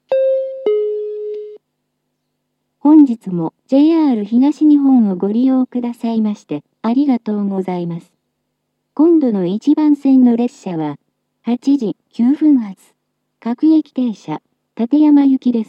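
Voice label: platform 1 advance notice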